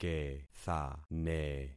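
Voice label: "kethane", concatenated syllables; Affixing experiment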